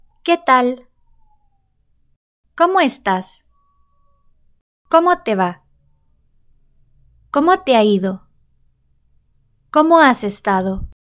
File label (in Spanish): que tal, Spanish